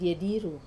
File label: "die diru", nighttime low tide, wamesa